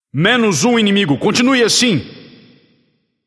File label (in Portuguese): notify slain you enemy, vox caster portuguese